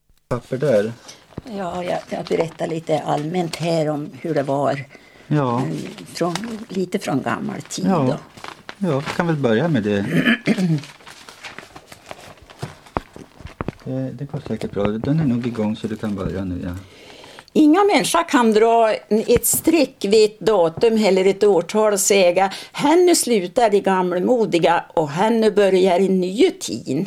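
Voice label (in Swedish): Hille Gästrikland, Svenska sagor, dialekter; Folkminnen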